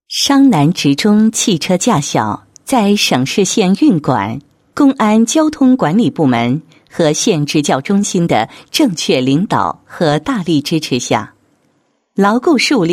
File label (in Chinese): 女 学校 宣传; 职中驾校宣传